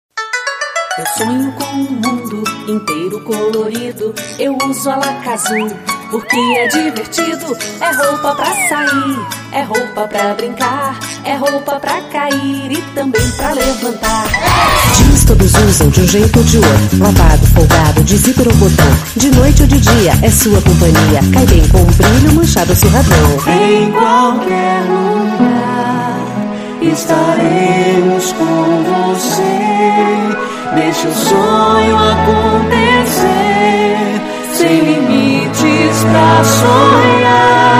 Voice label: Portuguese Brazilian others

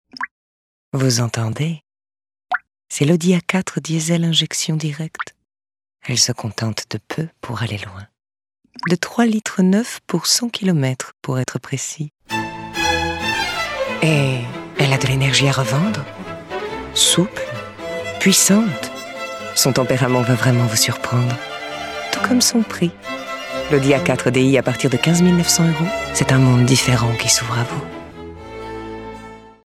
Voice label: french automotive

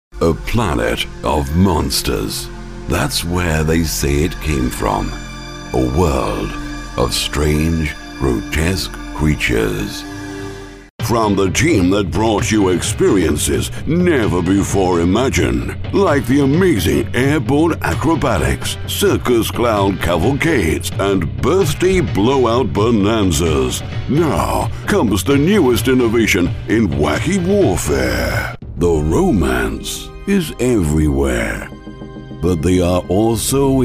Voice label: English British movie trailers

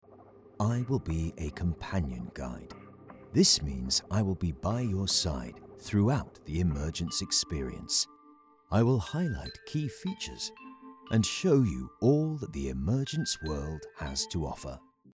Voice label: english british audio guides

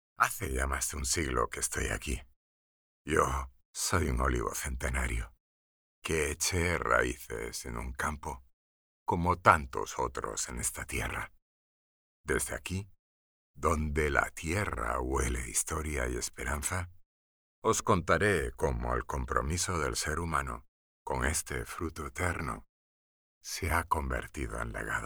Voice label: spanish explainer videos

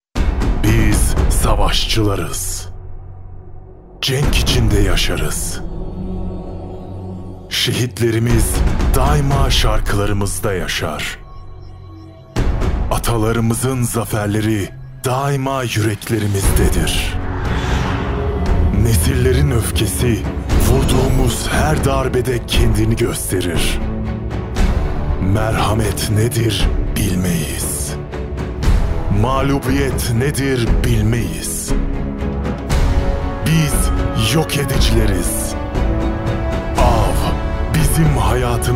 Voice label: turkish movie trailers

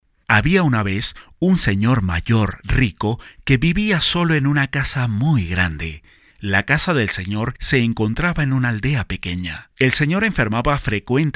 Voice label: spanish latin american audiobooks

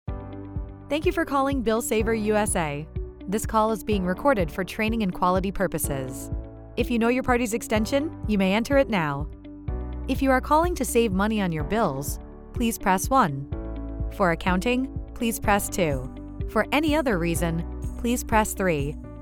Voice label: english american ivr

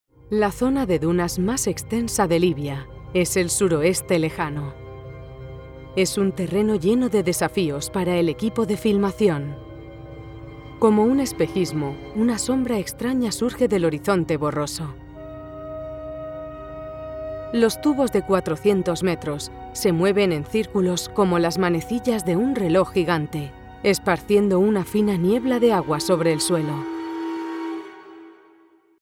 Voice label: spanish documentaries

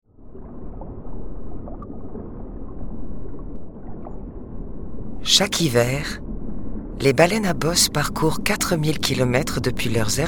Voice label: french documentaries

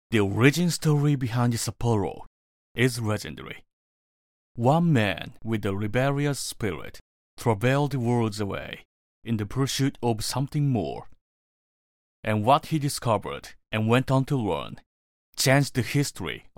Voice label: english commercial

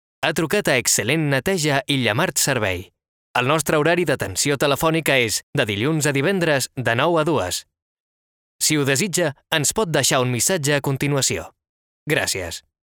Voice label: catalan ivr

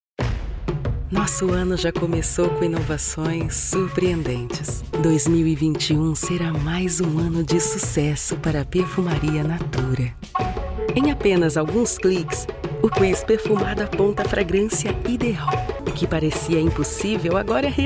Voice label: portuguese brazilian tv shows

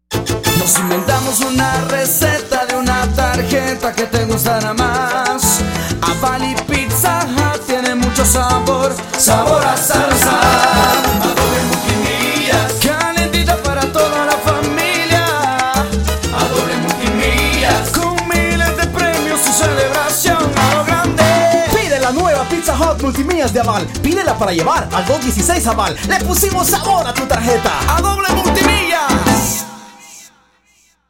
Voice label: spanish latin american promos

